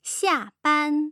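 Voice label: "xiaban", Mandarin Chinese, tone pairs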